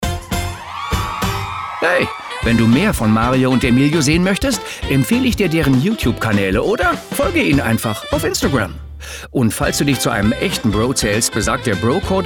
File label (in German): Podcast Outro